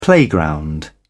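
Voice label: "playground", British English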